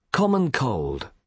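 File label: "common cold", British English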